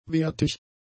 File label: "wertig", German; adjectives